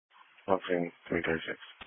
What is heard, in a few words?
Police Patrol 1 (Dispatch)